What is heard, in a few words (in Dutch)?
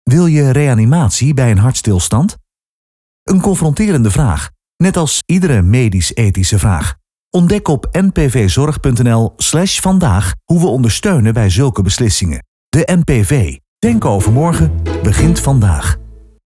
Commercial Reanimatie Radio; Radiospotje Reanimatie; Commercial NPV Zorg Reanimatie 2025 Groot Nieuws Radio; De campagne Denken over morgen begint vandaag